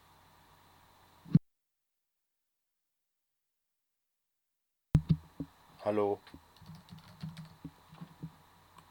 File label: headset audio cx100